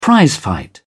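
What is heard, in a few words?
"prizefight", British English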